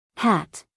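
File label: "hat", American English, female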